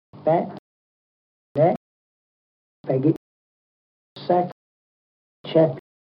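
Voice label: kent warren st male trap, accents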